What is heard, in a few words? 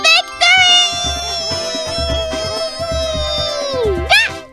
Victory, Worms speechbanks; Takanashi Kiara